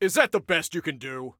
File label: Taunt Obnoxious, Soldier Male; Soldier Male 02